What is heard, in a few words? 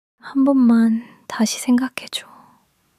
sadness